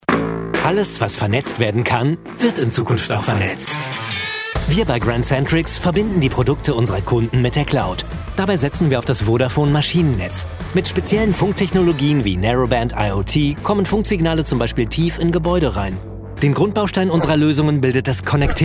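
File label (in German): Produktpräsentation dynamisch Tempo; Muttersprache Deutsch; Sprecher in Berlin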